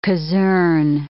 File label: "casern", English